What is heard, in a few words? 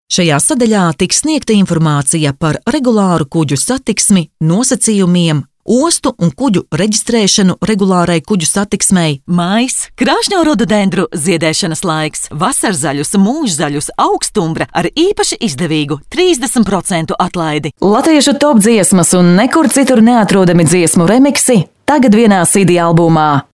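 Latvian, female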